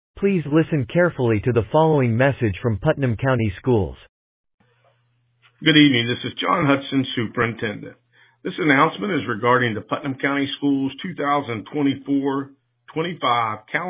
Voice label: Voicemail